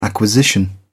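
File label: "acquisition", British English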